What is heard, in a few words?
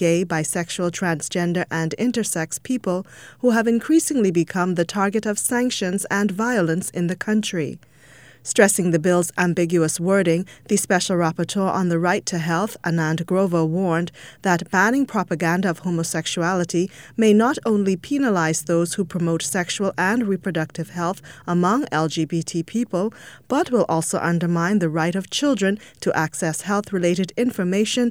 news russia